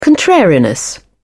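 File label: "contrariness", British English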